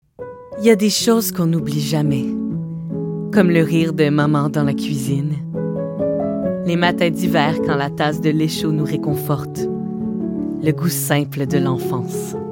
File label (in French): voix pub, adultes; Démo voix pub